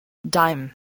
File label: "dime", english spelling